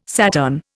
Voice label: "Saturn", Indian